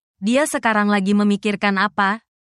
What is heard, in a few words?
indonesian phrases